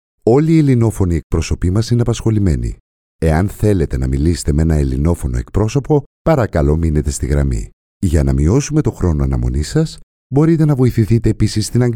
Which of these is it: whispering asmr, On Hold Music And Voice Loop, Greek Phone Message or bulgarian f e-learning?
Greek Phone Message